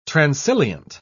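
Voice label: "transilient", British English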